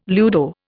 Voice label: "ludo", Indian